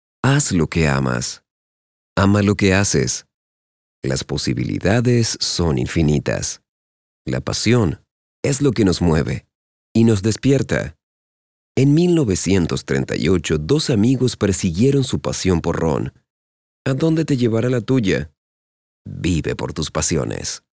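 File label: Spanish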